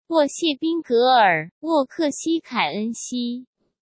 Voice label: sent zh-CN